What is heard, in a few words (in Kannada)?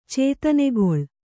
"ಚೇತನೆಗೊಳ್", Kannada